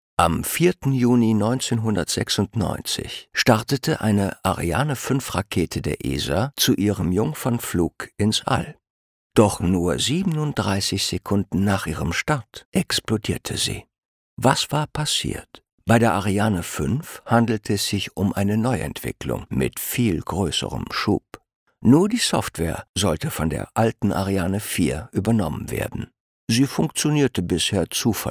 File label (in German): charmant ironisch ernst